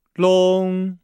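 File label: "long", Chinese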